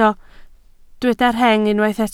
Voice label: "rheng", Welsh